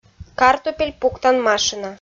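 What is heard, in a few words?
"картупель пуктан машина", Komi, female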